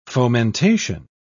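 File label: "fomentation", British English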